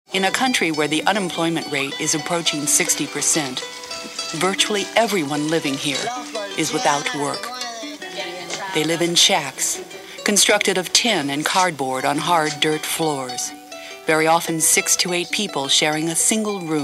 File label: narrative